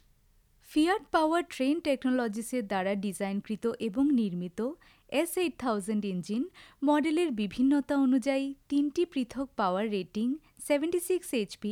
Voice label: ELEARN BENGALI, Female